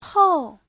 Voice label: "hou4", chinese

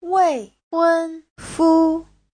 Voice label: "wei4hun1fu1", Chinese; 未婚夫

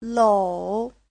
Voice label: "lou3", chinese; 口; Giáo trình chuẩn HSK3

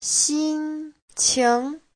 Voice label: "xin1 qing2", Chinese

